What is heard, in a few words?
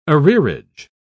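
"arrearage", British English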